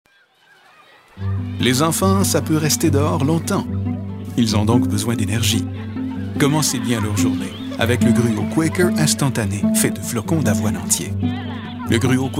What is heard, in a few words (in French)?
Voix pub; Quaker